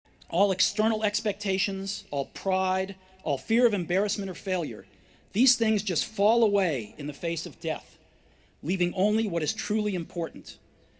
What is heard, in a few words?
Commencement Address; Steve Jobs; 2005; Stanford